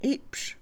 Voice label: veinte, Mixe, Mujer; Números; INALI

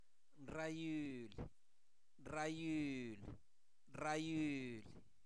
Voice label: entregas, Zapoteco, santo domingo albarradas; INALI; verbos

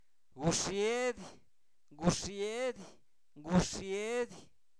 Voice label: chapulín, Zapoteco, santo domingo albarradas; animales; INALI

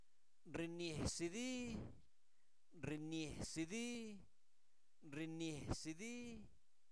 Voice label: Zapoteco Albarradas, santo domingo albarradas; INALI; verbos; orinan (ustedes)

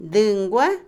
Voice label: Otomí, mujer; talón; Vocabulary; INALI

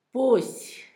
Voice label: entonces, Zapoteco, Asunción Ocotlan; Adverbios; INALI; Haspelmath

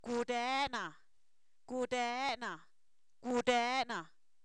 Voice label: doy, Zapoteco, san miguel albarradas; verbos; INALI

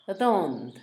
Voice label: pecado, Zapoteco, Asunción Ocotlan; Sustantivos; INALI

